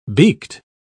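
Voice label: "beaked", English, British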